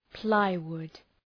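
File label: "plywood", English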